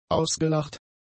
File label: "ausgelacht", German; adjectives